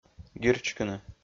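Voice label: "гирчкыны", Komi, male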